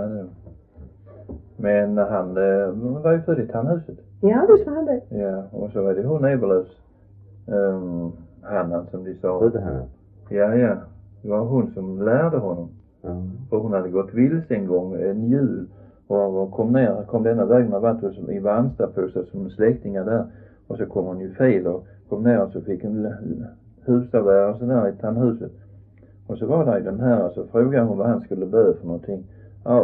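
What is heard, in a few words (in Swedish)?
Röddinge Skåne, Svenska sagor dialekter; Folkminnen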